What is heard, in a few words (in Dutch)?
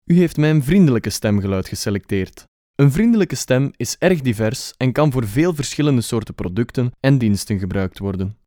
vriendelijk